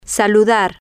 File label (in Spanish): "saludar" Spanish